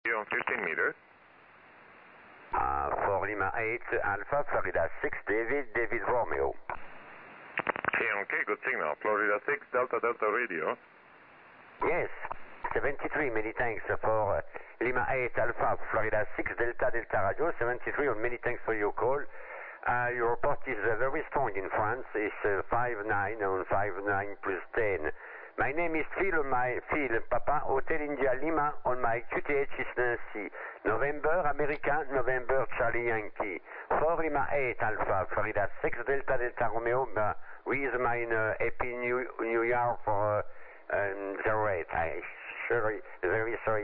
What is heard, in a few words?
21 MHz SSB